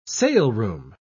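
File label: "saleroom", British English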